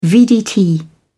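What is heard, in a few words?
"vdt", British English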